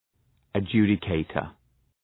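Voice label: "adjudicator", English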